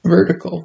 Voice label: Vertical, screen reader audio; kiss-flixel; simplewindow-test